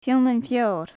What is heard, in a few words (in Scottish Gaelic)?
"fional feoir", gaelic; Faclan Nàdair